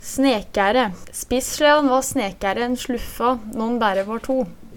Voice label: snekære, Numedalsmål; Ordet vert ikkje bøygd.

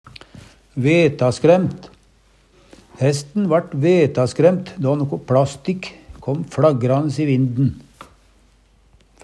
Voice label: vetaskraemt, Numedalsmål; Hesten vart vetaskremt då noko pLastikk kåm fLagrande i vinden.; Adjektiv

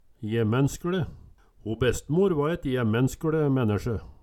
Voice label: jemenskLe, Numedalsmål

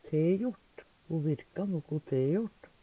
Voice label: "tejort", Numedalsmål; tilgjord; Adverb

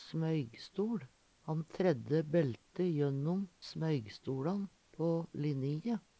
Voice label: smoygstoL, Numedalsmål

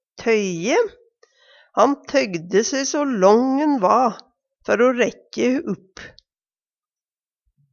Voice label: tøyje, Numedalsmål; Han tøygde se so lång han va før o rekkje upp.

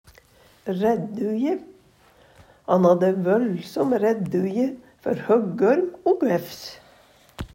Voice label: raedduje, Numedalsmål

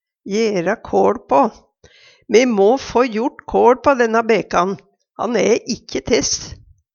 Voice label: jera kaL pa, Numedalsmål; gjera ende på

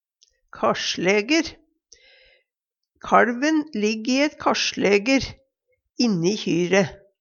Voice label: karslaeger, Numedalsmål

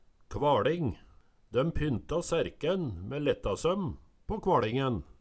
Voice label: "kvaLing", Numedalsmål

